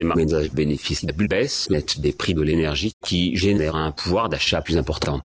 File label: synth